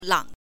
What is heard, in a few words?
"lang5", Chinese; 槟榔; bīng lang; caper; ma3 bing1 lang5; 马槟榔; 馬檳榔